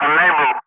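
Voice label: "unable", English, Wingman; Ka-50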